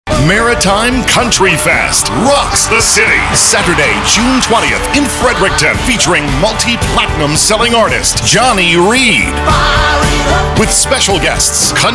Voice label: Radio Spot